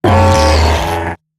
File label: Death Eximus Corpus Robot Large; Corpus Robotic Large